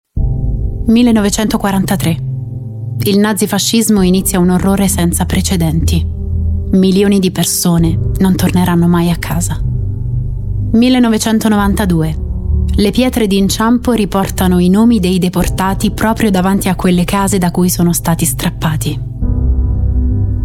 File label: italian voice over female documentary